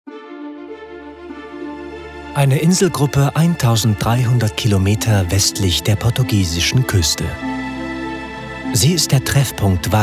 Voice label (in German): Doku OFF; Azoren; geheimnisvoll